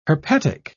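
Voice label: "herpetic", British English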